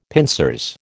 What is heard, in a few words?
"pincers", American